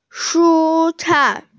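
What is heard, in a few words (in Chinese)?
"shu1cai4", Mandarin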